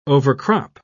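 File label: "overcrop", British English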